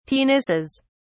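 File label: "penises", English, British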